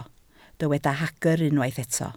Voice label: "hagr", Welsh

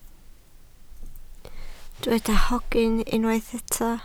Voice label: "hogyn", Welsh

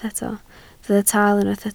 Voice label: "taal", Welsh

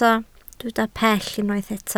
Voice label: "pell", Welsh

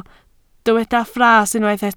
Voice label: "fras", Welsh

